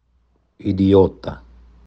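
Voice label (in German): "idiota", italienisch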